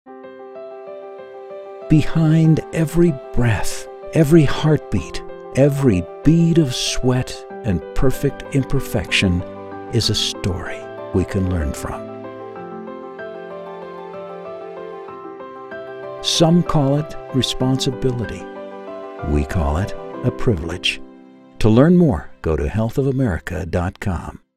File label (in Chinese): 外籍英男, 汽车广告, 养老中心宣传; Health-of-America